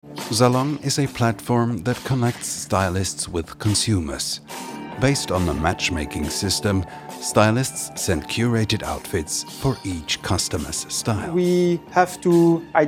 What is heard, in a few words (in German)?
imagefilm englisch